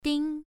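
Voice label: "ding" Mandarin, first tone, pronunciation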